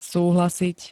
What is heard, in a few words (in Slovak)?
"súhlasiť", slovenské